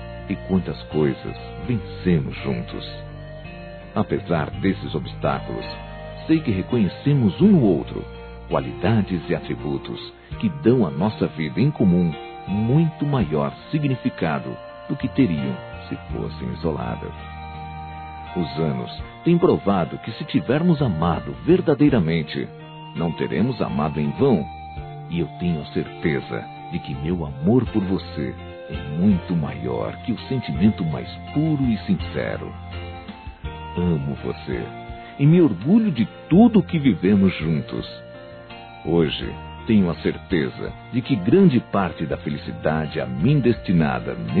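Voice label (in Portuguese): Casamento Romantico Masculino; Aniversários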